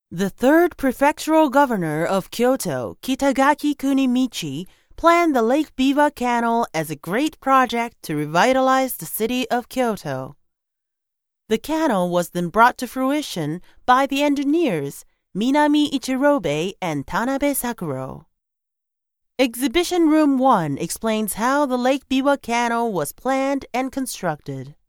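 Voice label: 3rd exhibition room english; Voice guidance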